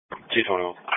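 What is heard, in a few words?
Police Patrol (Dispatch)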